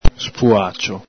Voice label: "spuacio", Veneto